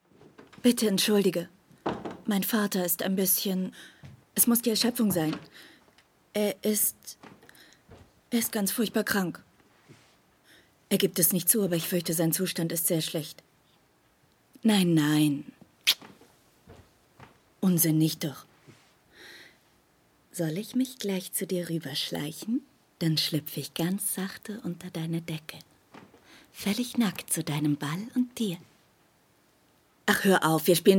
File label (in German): Synchron franz. Komoedie; LOFT VOICES